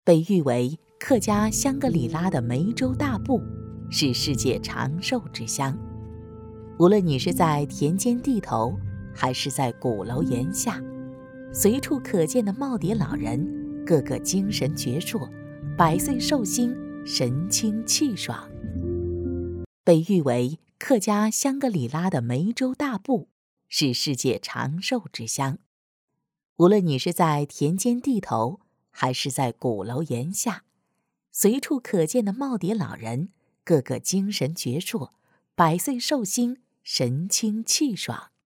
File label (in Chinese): 女 纪录片